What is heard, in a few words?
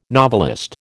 "novelist", American